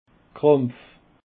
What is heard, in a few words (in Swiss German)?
"krampf", Alsace